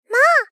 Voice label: Gentle Perceive "Maa"